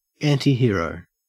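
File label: "antihero", Australian English; pronunciations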